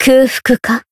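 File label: Voice heal, Japanese; eva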